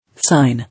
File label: "Sign", English